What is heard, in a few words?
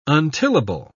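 "untillable", British English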